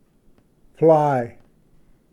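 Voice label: "fly", English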